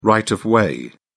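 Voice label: "right of way", British English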